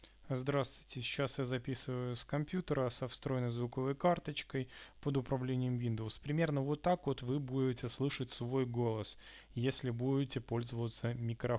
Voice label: mic